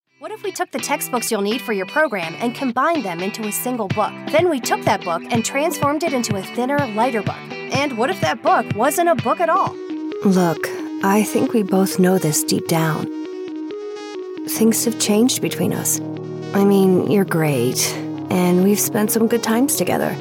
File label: non broadcast voiceover